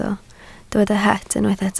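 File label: "het" Welsh, spoken word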